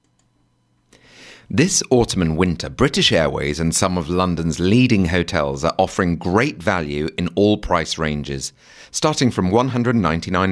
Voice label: advertising, ENGLISH UK MALE